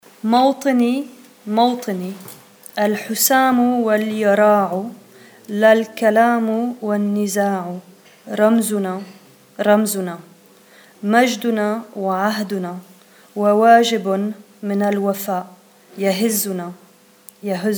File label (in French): Mawtini prononciation couplet; Mawtini (« ma patrie, mon pays ») est un chant écrit par le poète Ibrahim Tougan